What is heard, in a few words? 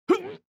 MALE damage